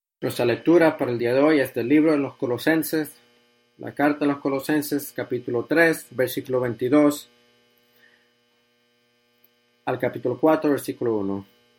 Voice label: Spanish; ServingLordChrist